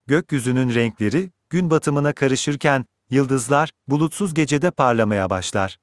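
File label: Turkish; tr-TR; iOS Sounds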